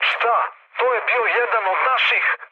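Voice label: Serbian player killed ally mood med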